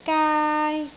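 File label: "gaai1", Cantonese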